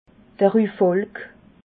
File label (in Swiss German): "rufolk", alsace